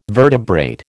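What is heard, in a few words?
"vertebrate", American English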